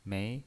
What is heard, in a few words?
"mei2", pinyin